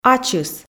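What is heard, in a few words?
"ачыс", Komi, female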